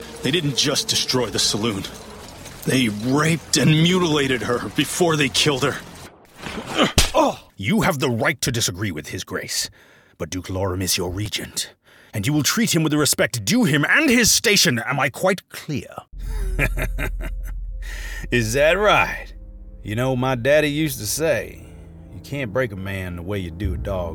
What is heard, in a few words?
Character